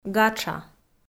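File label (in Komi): "гатша", Komi, female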